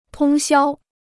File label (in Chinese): "通宵", Chinese